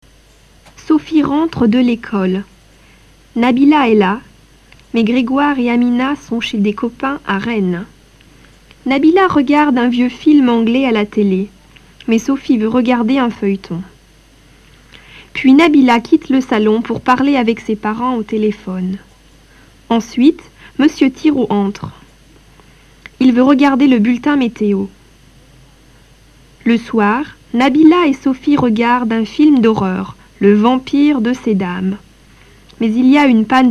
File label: French Text